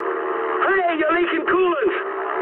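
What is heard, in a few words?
damaged water mood high, speech English; War Thunder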